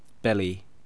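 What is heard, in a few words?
"belly", English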